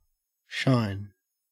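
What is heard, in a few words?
"shine", Australian English; pronunciations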